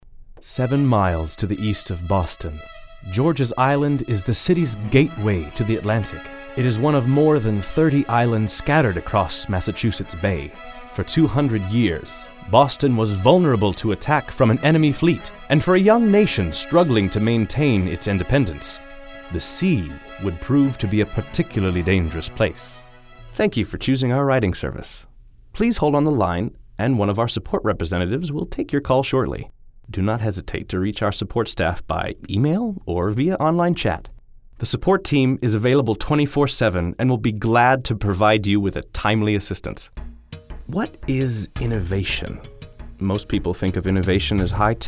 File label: Narration